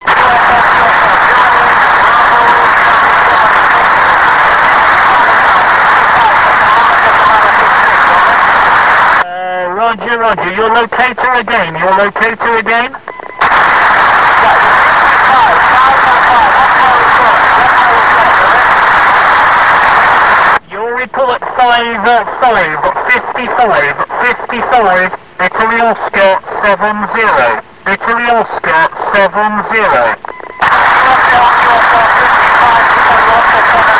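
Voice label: amateur radio contact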